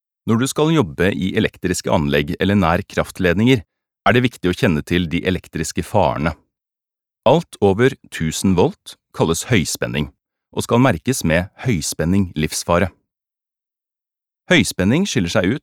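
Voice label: Norwegian e-learning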